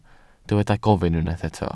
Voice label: "gofyn", Welsh

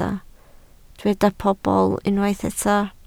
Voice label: "pobl", Welsh

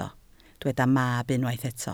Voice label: "mab", Welsh

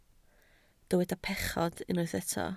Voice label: "pechod", Welsh

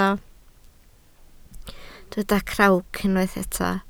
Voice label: "crawc", Welsh

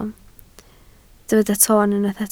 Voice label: "toon", Welsh

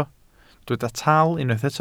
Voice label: "taal", Welsh

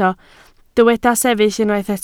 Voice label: "sefyll", Welsh

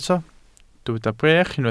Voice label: "brech", welsh

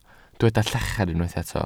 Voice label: "llachar", Welsh

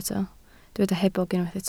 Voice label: "hebog", Welsh

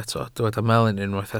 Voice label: "melyn", Welsh

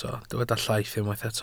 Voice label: "llaith", Welsh

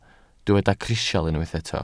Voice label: "crisial", welsh

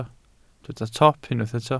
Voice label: "top", Welsh

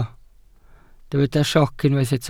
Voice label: "sioc", Welsh

